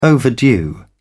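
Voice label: "overdue", British English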